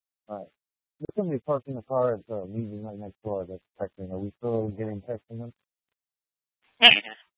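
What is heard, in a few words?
Tempe police department, scanner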